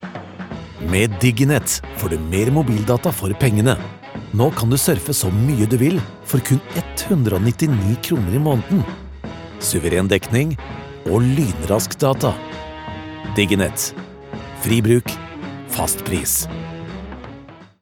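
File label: no upbeat, Norwegian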